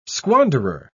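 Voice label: "squanderer", English, British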